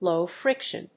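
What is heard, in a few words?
"low-friction", English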